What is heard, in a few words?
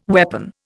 "weapon", Indian